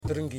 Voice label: "Teeth", Yimas